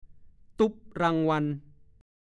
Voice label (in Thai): "ตุ๊บรางวัล", ภาษาโคราช